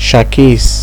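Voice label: "shakis", Nigerian Pidgin, male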